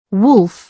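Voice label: "wolf", British English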